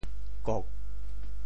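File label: "kok4", Teochew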